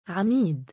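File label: "3amiid" male, Arabic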